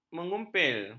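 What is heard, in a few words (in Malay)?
"mengumpil", Malay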